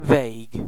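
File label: "vague", British English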